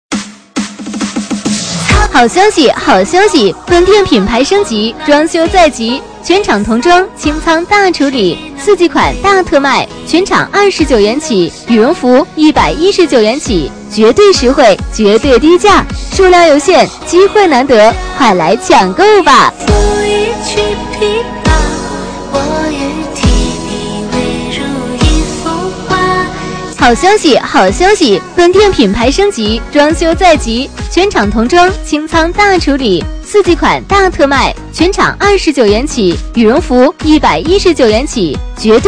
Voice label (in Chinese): 女 促销 童装清仓大处理